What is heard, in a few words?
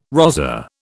"rozzer", British